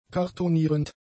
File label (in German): "kartonierend", German; adjectives